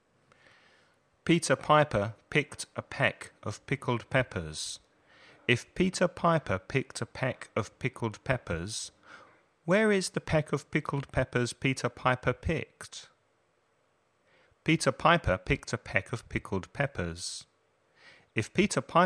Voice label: tongue twisters read listen repeat Peter Piper picked a peck of pickle; trabalenguas